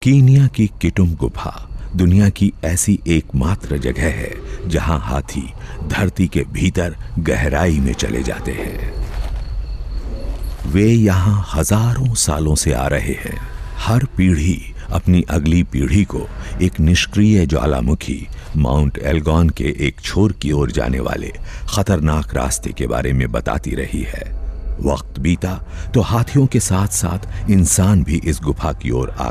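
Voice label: Hindi, Documentary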